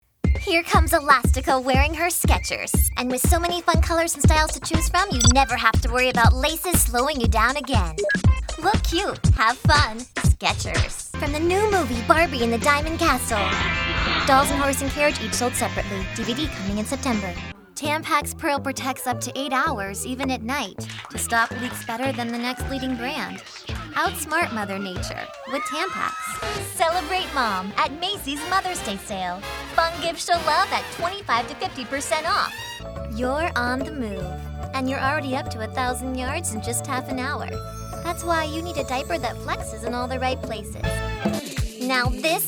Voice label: Commercial